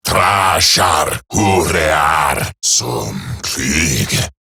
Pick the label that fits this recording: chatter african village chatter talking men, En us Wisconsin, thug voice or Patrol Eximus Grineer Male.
Patrol Eximus Grineer Male